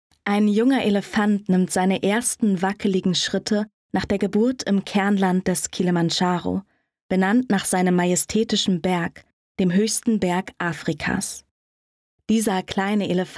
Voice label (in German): Doku; LOFT VOICES